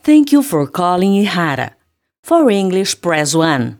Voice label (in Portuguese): ura ingles; ihara